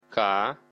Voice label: belarusian alphabet k